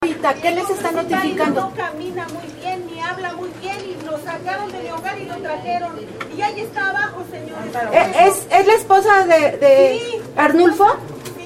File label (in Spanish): mujer; presas del penal de santiaguito; rehabilitado